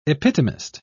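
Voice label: "epitomist", British English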